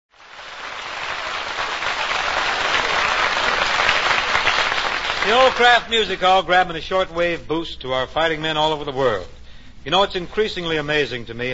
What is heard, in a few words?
Commercial, WWII waste kitchen fats